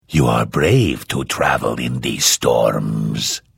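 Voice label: Hello, Vo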